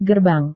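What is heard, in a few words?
"gerbang", Indonesian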